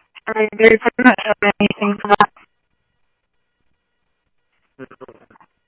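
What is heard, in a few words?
Lakes Sheriff Lake Patrol, scanner audio trunk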